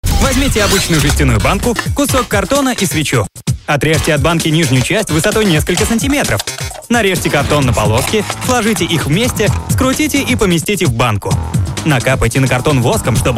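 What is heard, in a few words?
Russian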